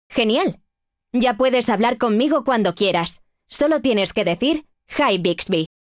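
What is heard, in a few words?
wake up succeed Spanish; Bixby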